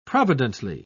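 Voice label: "providently", British English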